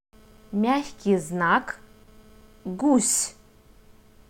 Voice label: "ь", Russian

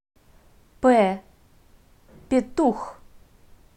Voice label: "п", Russian

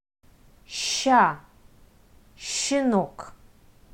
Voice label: "щ", Russian

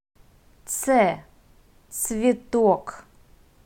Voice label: "ц", Russian

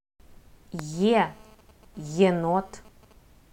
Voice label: "е", Russian

